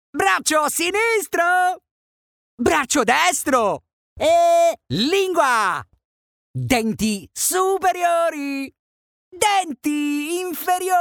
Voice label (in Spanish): locutor italiano